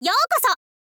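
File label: "welcome", info girl; voice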